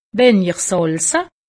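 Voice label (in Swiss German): "salze", Alsatian; medecine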